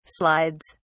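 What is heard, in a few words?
"slides", British English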